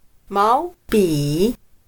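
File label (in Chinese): "毛笔", Chinese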